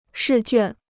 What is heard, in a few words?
"试卷", Chinese